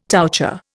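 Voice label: "touché", Indian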